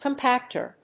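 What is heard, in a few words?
"compacter", English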